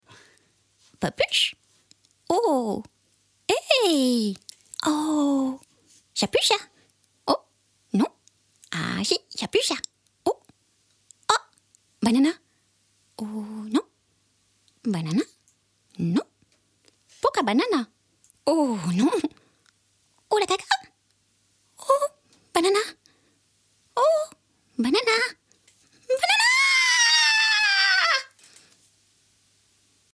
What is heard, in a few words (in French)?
Dessin Animé; Tessiture Voix Médium – Aiguë; Papuche